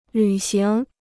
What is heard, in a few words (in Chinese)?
"履行", Chinese